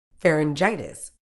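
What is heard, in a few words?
"pharyngitis", medical English, British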